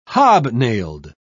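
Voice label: "hobnailed", British English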